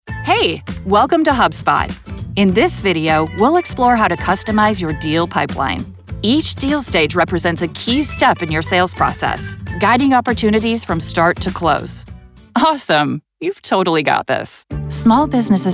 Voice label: eLearning